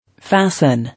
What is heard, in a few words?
"Fasten", English